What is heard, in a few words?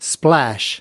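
"splash", American English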